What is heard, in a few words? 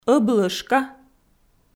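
"ӧблӧжка", Komi, female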